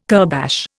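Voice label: "kurbash", Indian English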